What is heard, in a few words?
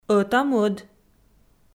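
"ӧта-мӧд" Komi, female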